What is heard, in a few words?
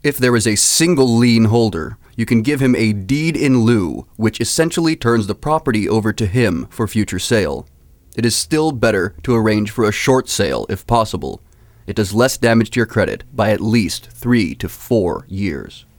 MALE VOICE, narration